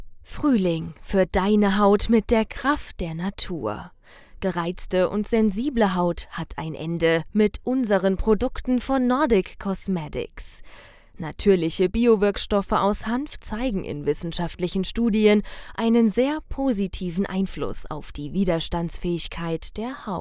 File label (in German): medizinisch Werbespot; Nordic Cosmetic